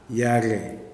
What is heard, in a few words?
"tail", kuman